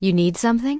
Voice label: Human Female Official NPC Greeting